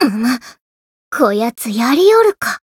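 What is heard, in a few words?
encounter fear, Japanese; leviachan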